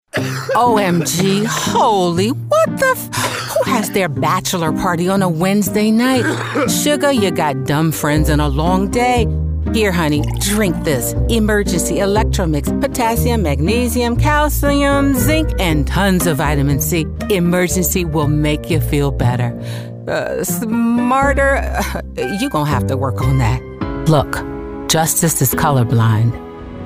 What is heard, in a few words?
Commercial